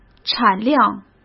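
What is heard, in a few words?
"产量", Mandarin Chinese; chinese-flashcards; hsk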